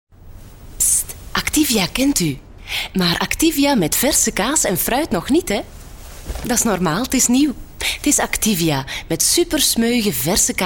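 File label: Flemish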